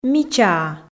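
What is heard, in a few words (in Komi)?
"мичаа", Komi, female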